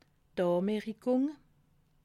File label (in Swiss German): "anmerkung", alsace